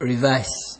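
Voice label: "revise", Nigerian Pidgin, male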